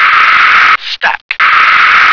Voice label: "stack", English